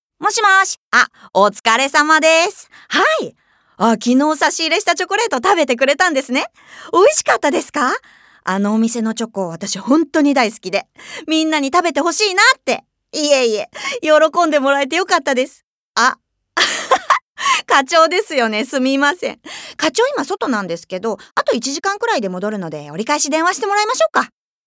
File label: female voice